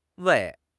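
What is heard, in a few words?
"wei4", Mandarin Chinese; HSK3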